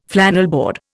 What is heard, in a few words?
"flannelboard", Indian